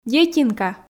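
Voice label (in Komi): "детинка", Komi, female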